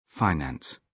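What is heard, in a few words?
"finance", British English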